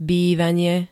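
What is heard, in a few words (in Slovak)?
"bývanie", Slovak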